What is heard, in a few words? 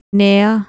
"nea", Urdu